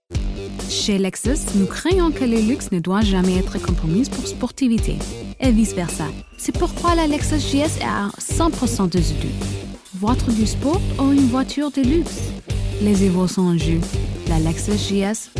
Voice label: French